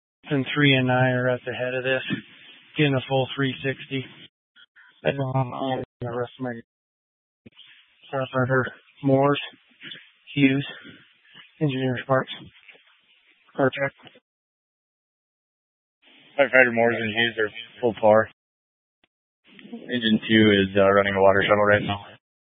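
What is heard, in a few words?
Incident Tac 2, Scanner audio recording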